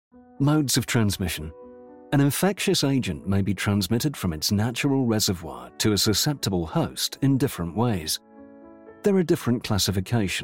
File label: Male Voice Over Talents Medical Healthcare